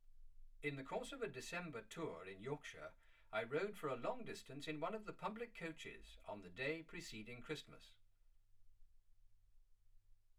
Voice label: male speech english